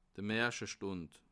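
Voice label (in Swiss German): "marchestund", Alsatian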